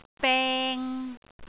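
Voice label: "beng3", Cantonese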